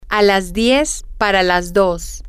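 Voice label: at ten to two, spanish, female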